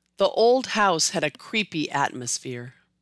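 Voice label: The old house had a creepy atmosphere, American English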